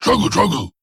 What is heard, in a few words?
Male Trogg Attack